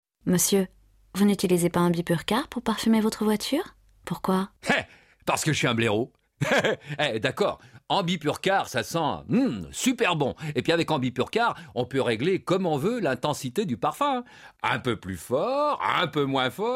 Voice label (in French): comédie; blaireau; ambipur car